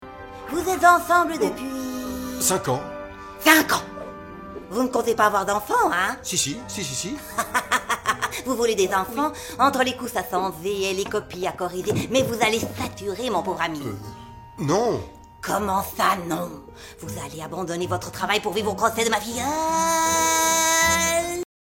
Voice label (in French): voix diable